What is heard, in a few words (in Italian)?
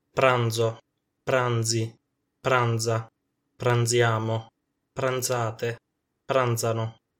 pranzare coniugazione, Italian